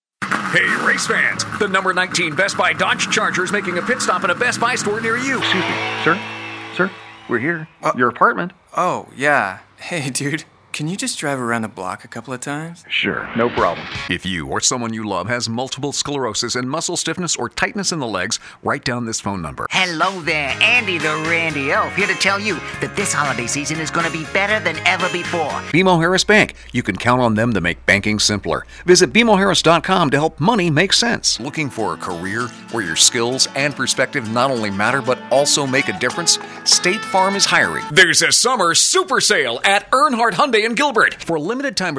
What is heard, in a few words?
Commercial, Male voices